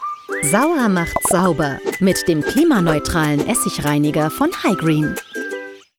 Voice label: German Commercial Fresh; Hygreen

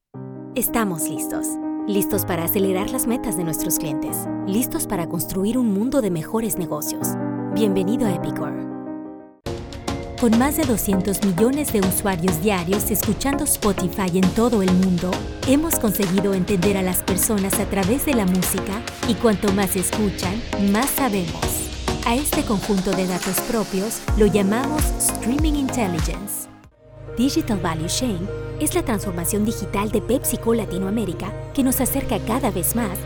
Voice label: Spanish Corporate

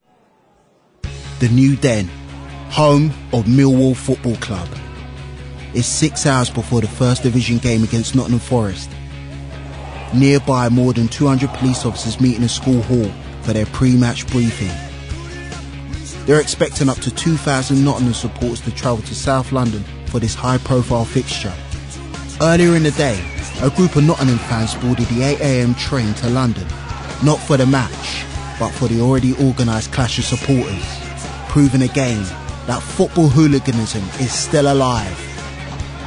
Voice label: Narration; Football hooligans

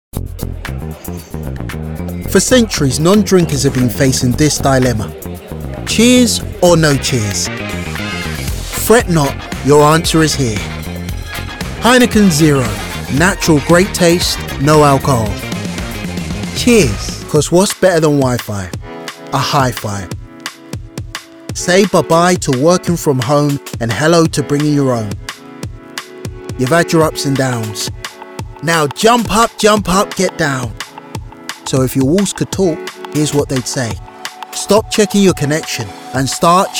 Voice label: Commercials

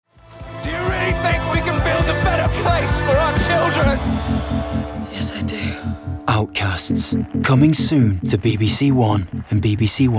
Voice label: Promo; Outcasts; Vocal Point

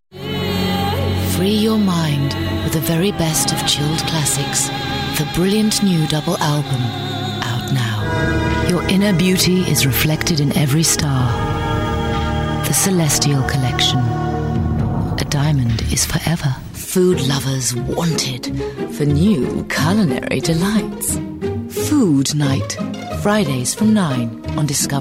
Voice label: Commercials; Vocal Point

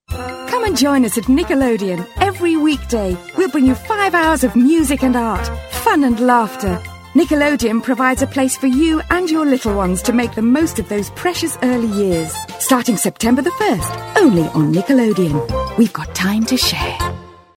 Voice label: Commercial; Nickelodeon; Vocal Point

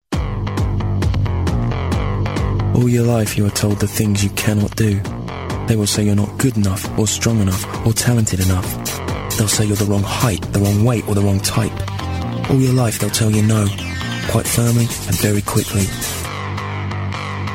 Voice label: Commercial; Nike

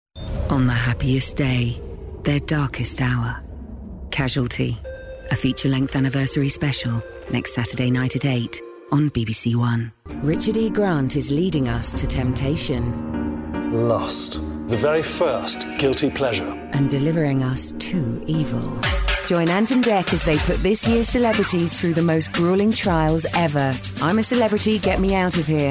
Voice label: Promos; Vocal Point

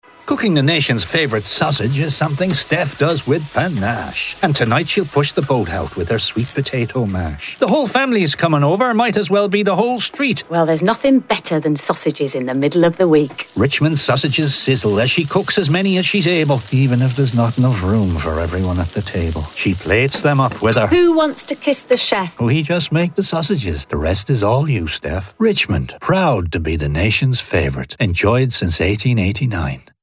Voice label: Radio; Richmond Sausages; Vocal Point

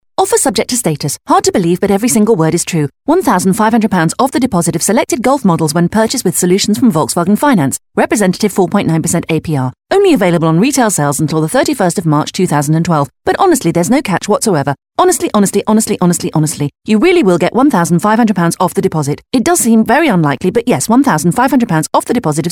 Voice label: radio end line; VW

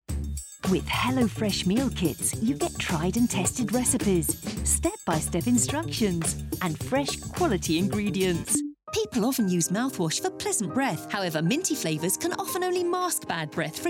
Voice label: Commercial

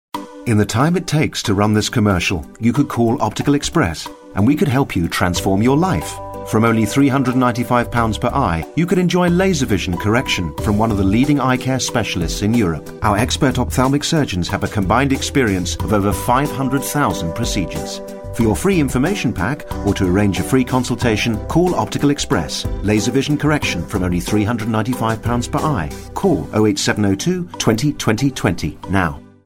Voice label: Commercial; Optical xprss; Vocal Point

